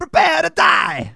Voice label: "KAMIKAZE", Speech, Soul Man

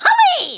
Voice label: "hurry", Kamikaze; Worms Armageddon

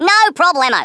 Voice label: "FLAWLESS", Australian; Worms Armageddon

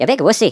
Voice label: "coward", Irish; Worms Armageddon

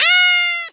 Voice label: "NOOO", German; Worms Armageddon

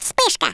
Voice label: fireball, Russian

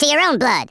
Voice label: "traitor", Redneck

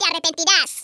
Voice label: "you'll regret that", Hispanic; Worms Armageddon

